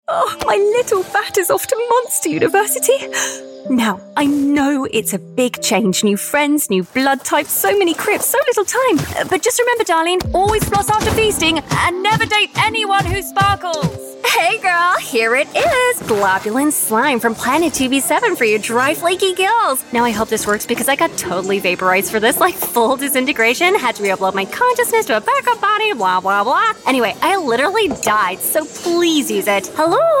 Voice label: animation, Expressive British Voiceover

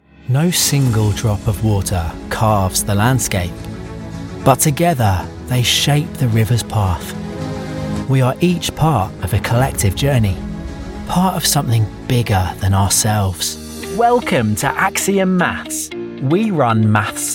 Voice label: explainer

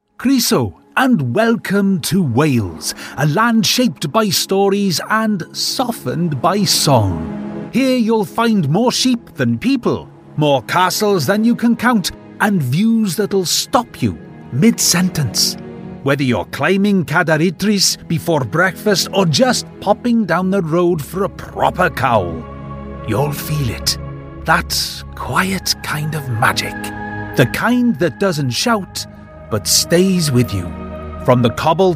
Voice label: welsh authentic

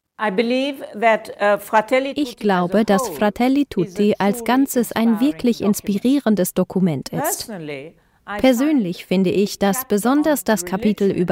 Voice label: german female documentary

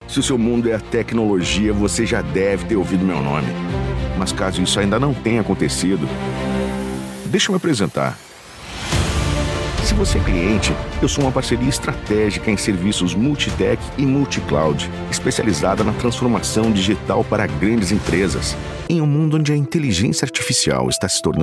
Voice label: Brazilian Portuguese corporate

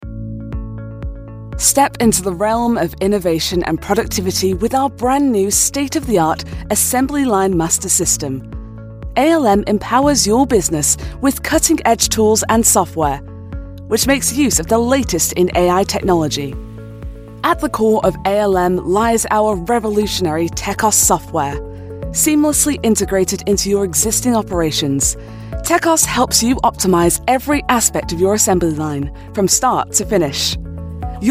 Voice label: northern voice corporate lancashire

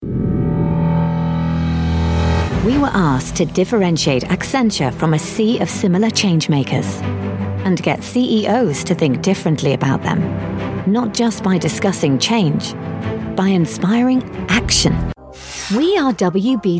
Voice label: corporate

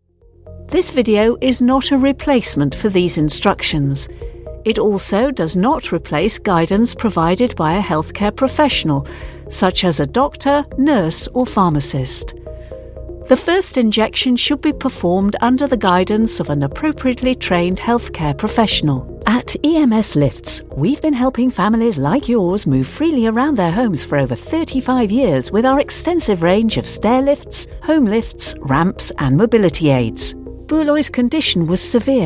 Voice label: medical and training

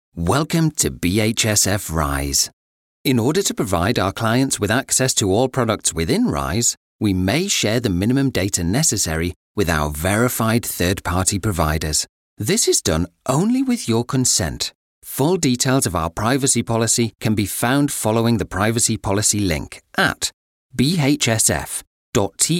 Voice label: telephone

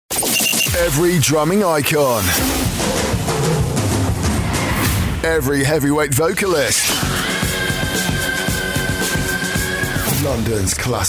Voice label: radio imaging promo

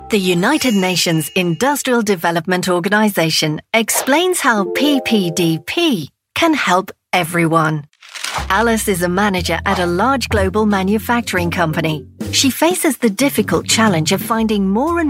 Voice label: website explainers mixed audio showreel

